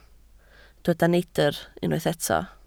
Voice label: "neidr", Welsh

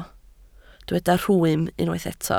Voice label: "rhwym", Welsh

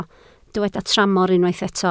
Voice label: "tramor", Welsh

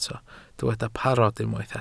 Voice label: "parod", Welsh

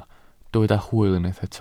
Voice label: "hwyl", Welsh

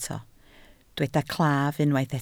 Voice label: "claf", Welsh

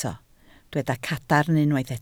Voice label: "cadarn", Welsh

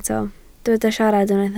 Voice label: "siarad", Welsh

